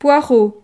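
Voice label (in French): "poireau", French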